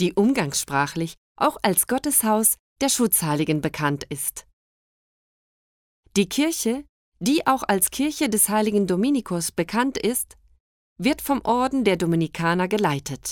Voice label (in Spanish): AUDIOGUIA ALMERIA ALEMAN iglesia santuario virgen del mar